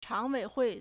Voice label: "chang2wei3hui4", Chinese